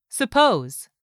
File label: "suppose", English; 3000 words